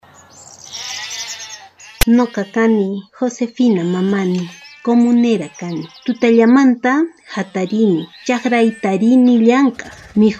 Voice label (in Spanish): Spot Dia de la Mujer rural